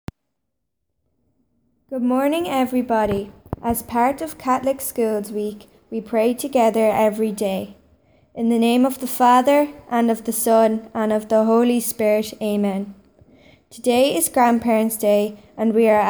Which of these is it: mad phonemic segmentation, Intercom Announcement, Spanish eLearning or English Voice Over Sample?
Intercom Announcement